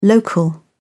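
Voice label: "local", English, British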